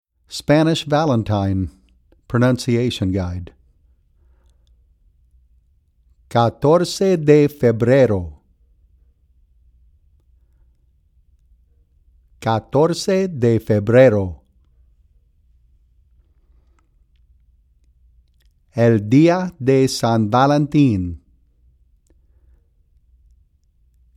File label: Pronunciation Guide